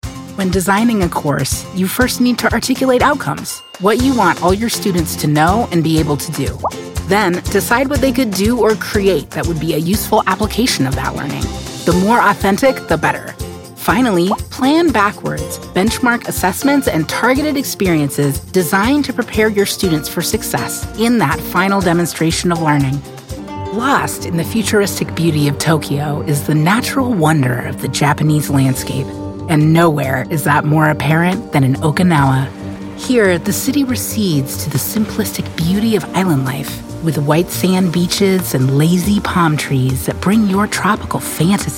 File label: english american narration